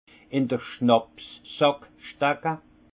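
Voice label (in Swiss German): "schnapsssackstecke", Alsace Haut Rhin; vin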